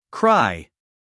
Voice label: "cry", US English, male